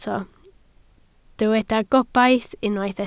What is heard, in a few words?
"gobaith", Welsh